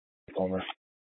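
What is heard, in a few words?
Police 1 Dispatch, Scanner audio recording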